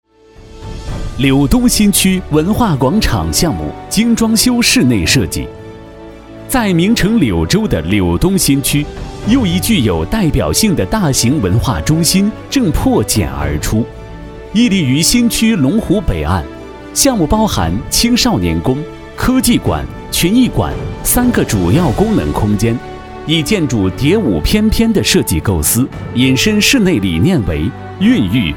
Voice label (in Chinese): 男 项目解说 沉稳; 柳东新区文化